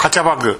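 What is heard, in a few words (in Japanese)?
"kachabagu", 南山形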